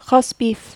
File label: "rosbif", French